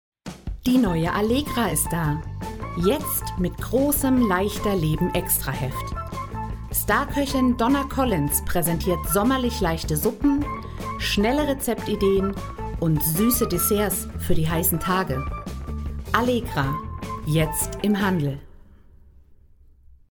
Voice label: Werbung; Allegra

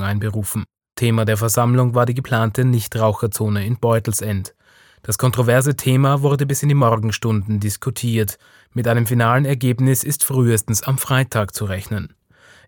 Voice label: Nachrichten

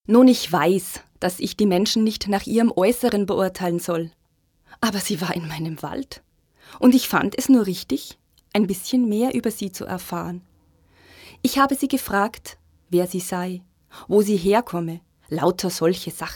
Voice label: Hörbuch Rotkäppchen

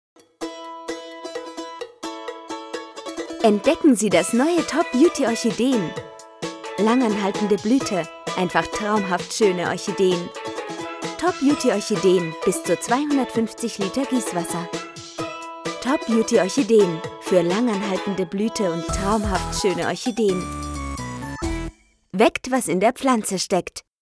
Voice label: werbung sanft; bayer orchideen

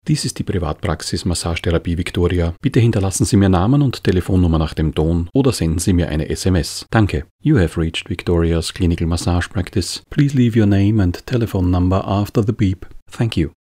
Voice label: AB zweisprachig

